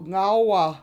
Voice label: "ngAwa", washo; Ground